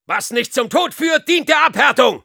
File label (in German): Soldier jeers, de